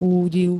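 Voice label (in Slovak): "údiv", Slovak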